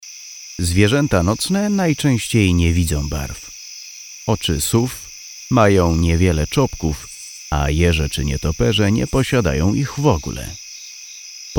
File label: voice over